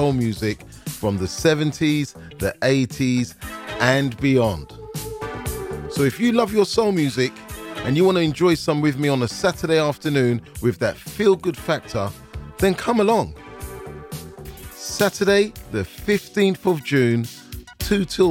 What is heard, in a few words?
Small Bar Ad